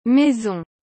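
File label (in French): "maison", French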